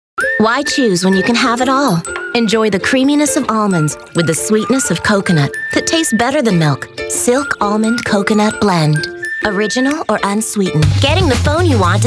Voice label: Commercials